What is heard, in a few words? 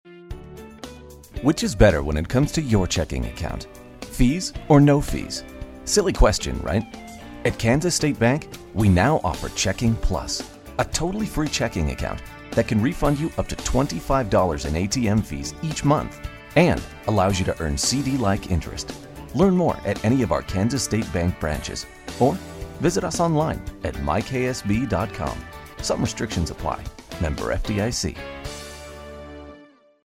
radio ad samples; KSB